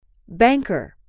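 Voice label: "banker", English, female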